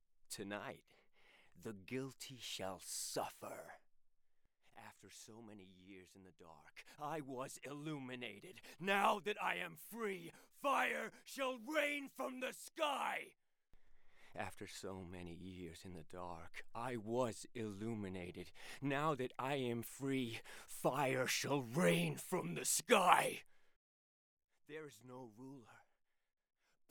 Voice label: US - Gaming; US Game Demo

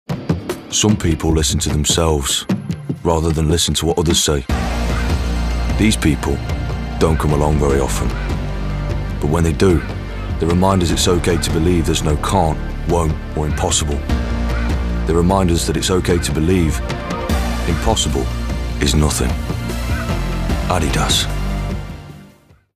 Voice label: COMMERCIAL; Adidas

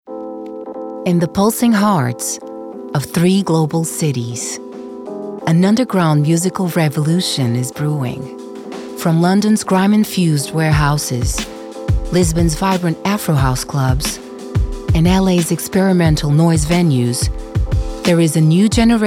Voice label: Documentary

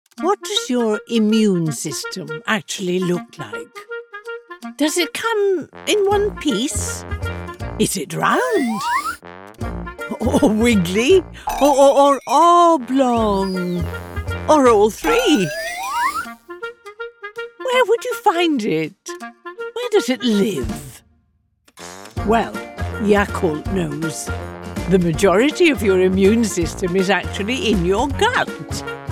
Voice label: Distinctive, Playful, Fun; Yakult

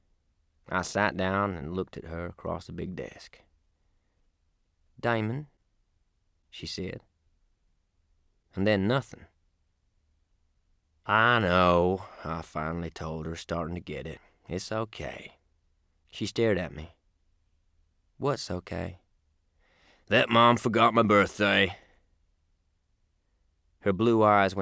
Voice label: Southern USA, US Southern - Narration; Demon Copperhead

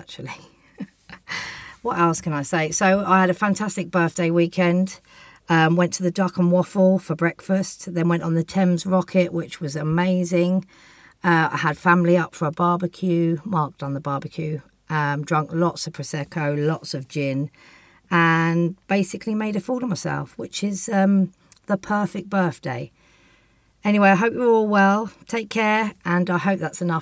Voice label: Home Studio, English

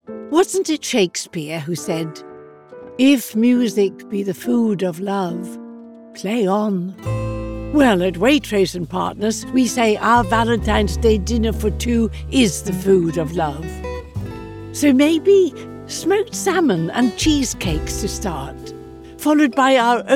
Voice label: Warm, Friendly, Homely; Waitrose

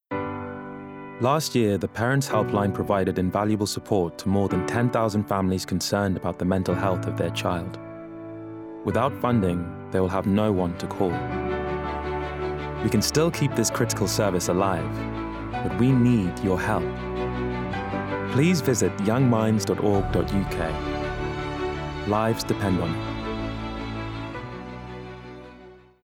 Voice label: Captivating, Intelligent, Inspiring; YoungMinds

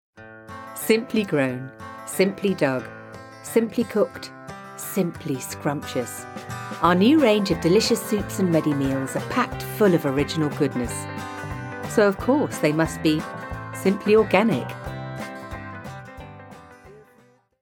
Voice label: Friendly, Warm, Relatable; Simply Organic

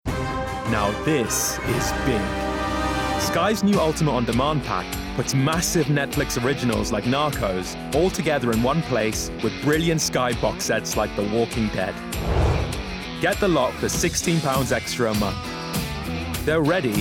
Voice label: Laidback, Matey, Cool; Sky

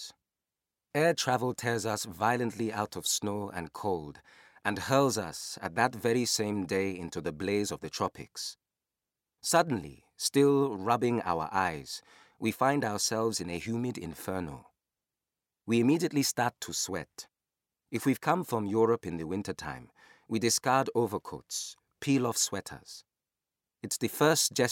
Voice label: West African accent

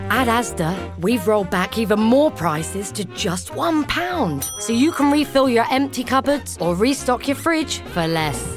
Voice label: Friendly RP; ASDA

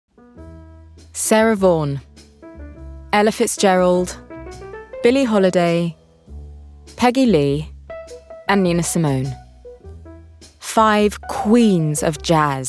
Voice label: doco; Documentary

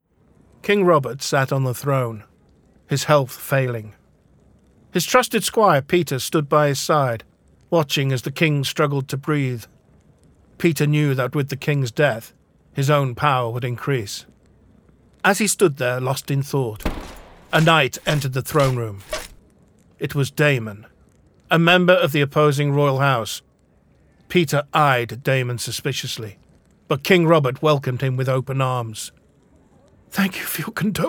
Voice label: Audiobook

